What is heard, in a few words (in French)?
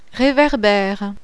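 "REVERBERE", French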